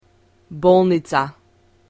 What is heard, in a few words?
"bolnica", Macedonian